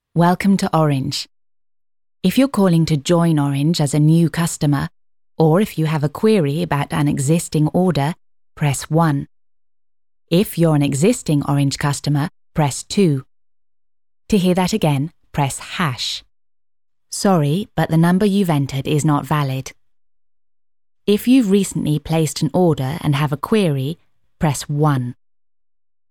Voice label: On Hold VO

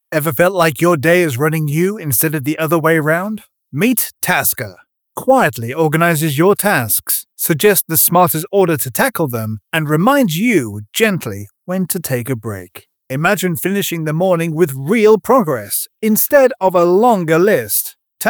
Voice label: Commercial DRY NO EFFECTS

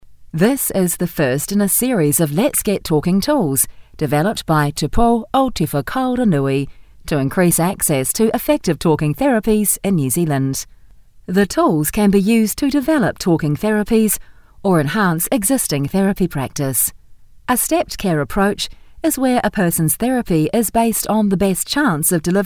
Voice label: E-Learning Training

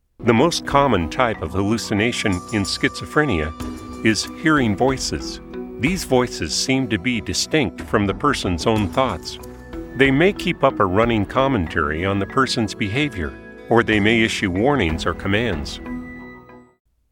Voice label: narration hallucinations

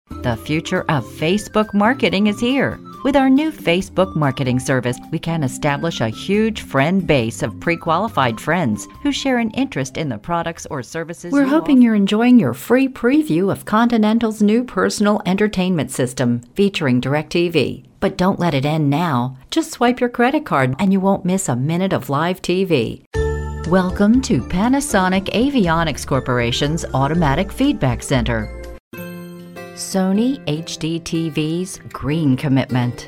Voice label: Radio Voices

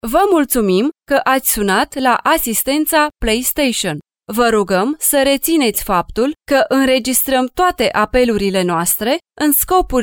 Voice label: ivr welcome

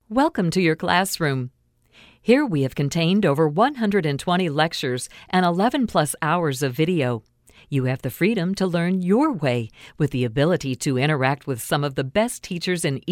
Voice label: elearning Narration

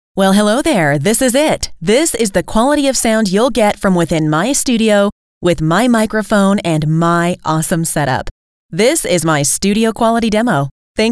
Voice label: Studio Sample

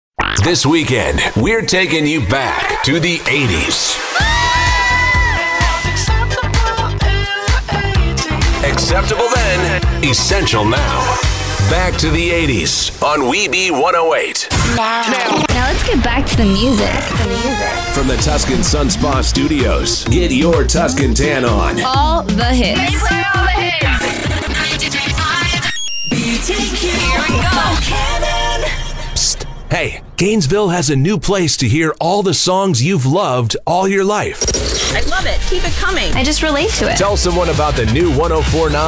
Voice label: radio imaging

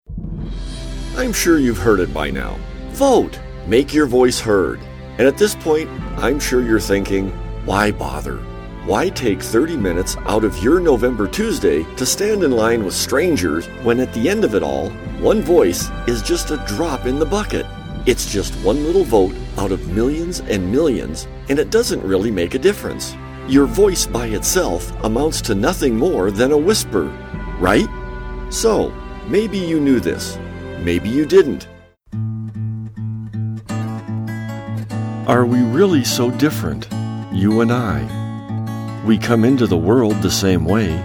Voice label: PSA

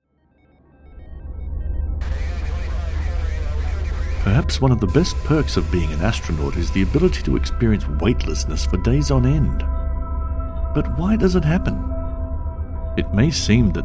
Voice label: Voice Over; Gravity

